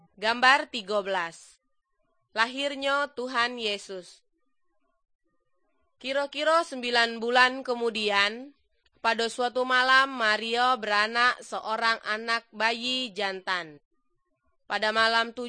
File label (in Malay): good news malay jambi